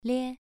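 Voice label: "lie", tone 2, pronunciation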